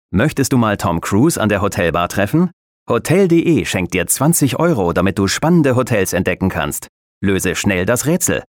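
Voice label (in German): advert, Neutral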